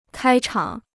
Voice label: "开场", Chinese

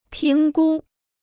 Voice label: "评估", Chinese

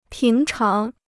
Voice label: "平常", Chinese

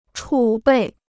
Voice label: "储备", Chinese

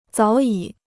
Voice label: "早已", Chinese

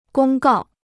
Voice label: "公告", Chinese

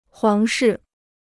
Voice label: "皇室", Chinese

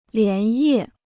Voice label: "连夜", Chinese

